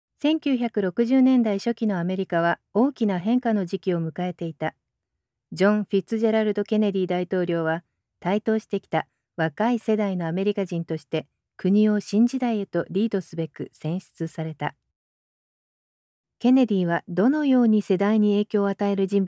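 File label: Japanese, female, narration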